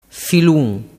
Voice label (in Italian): "filun", dialettu